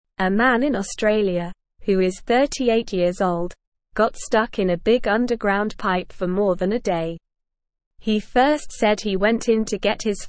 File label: English Newsroom Beginner SLOW Reading; Man stuck in pipe after hitting police car